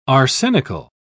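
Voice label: "arsenical", British English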